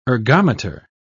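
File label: "ergometer", British English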